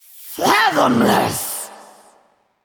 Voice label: Female Valkyr Wow